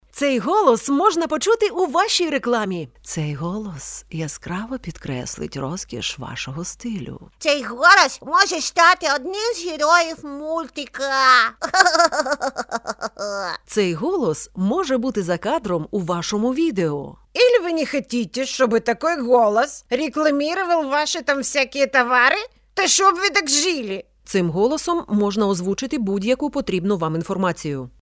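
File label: Ukrainian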